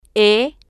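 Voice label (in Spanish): "e", spanish, female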